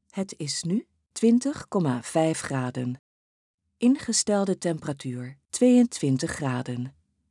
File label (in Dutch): Sprekende thermostaat spraak